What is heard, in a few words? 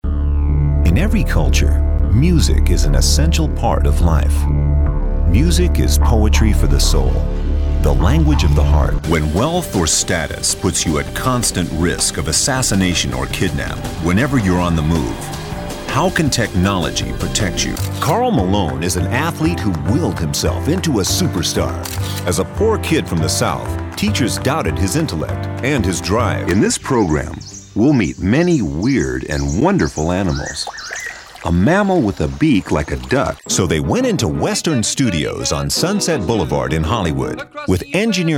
narration